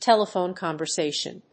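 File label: "telephone conversation", English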